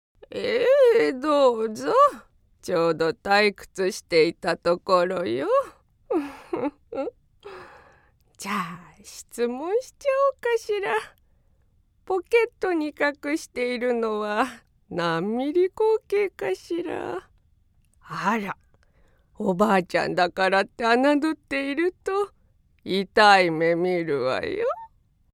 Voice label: 上品な凄腕マダム

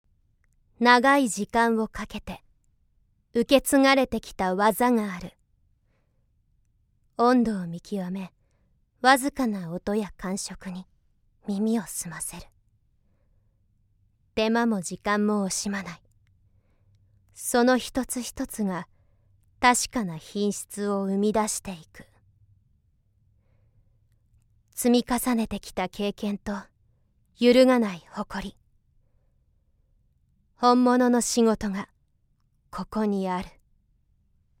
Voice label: ドキュメンタリーナレーション

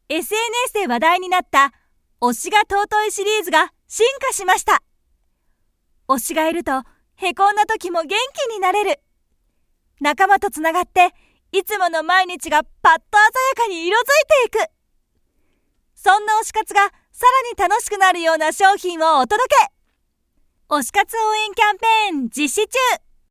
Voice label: 明るいCM

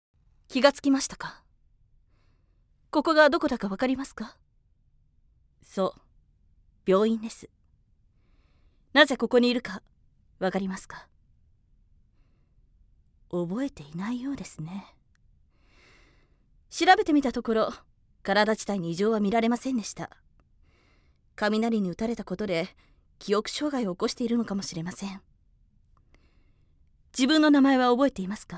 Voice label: 女性 台詞

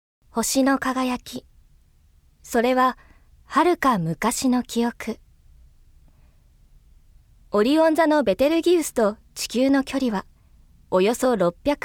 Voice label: プラネタリウム(女性 穏やか)

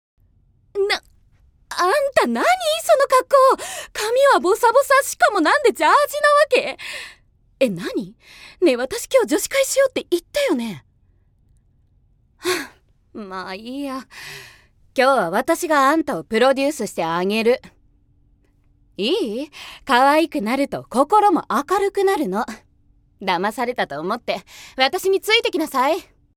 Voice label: 女子大生

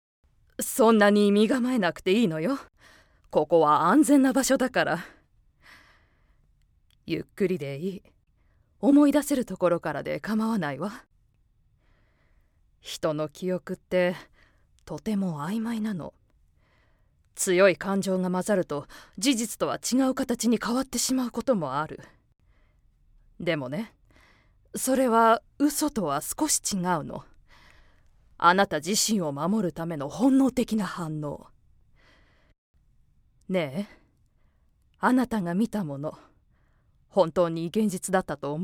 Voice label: おばさん心理士

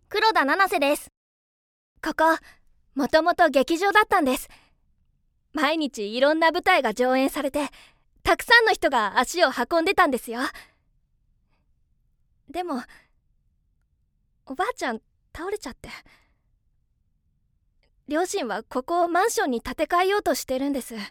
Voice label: 夢を語る少女(中高生 明るい); 鹿児島県

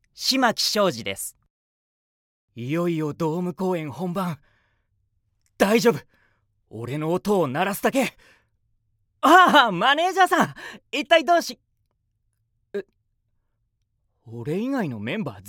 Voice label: 騒がしい悪魔; 関西弁(神戸弁)

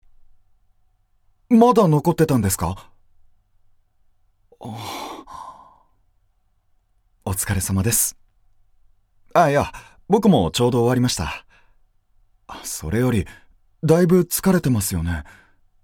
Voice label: ◆青年◆

